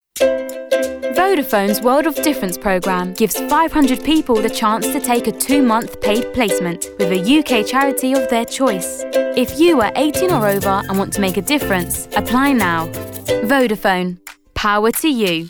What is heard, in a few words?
Commercial